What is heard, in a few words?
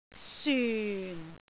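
"syun4", Cantonese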